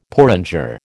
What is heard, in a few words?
"porringer", American